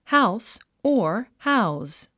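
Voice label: "house", English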